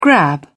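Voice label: "grab", English, British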